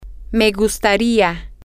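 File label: i would like, Spanish, female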